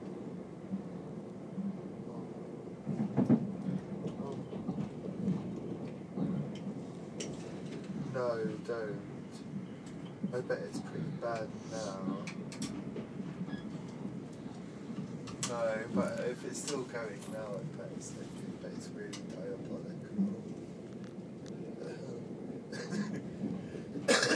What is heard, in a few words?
annoying phone conversation on the train